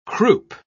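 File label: "croupe", British English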